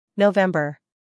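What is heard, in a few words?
"November", American English; sounds-of-american-english; everyone-can-use-english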